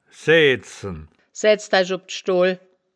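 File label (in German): "saeaedzen", wittlicher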